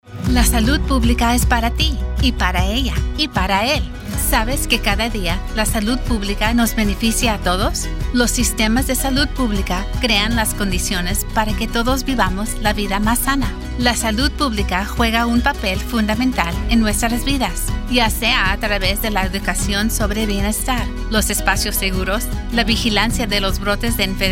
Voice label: SPANISH